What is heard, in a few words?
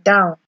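"down", Nigerian Pidgin, female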